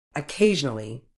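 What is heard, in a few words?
"occasionally", medical English, British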